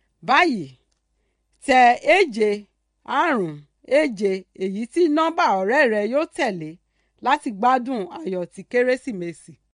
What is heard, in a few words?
Yoruba